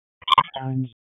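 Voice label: EMS Dispatch, p25; wake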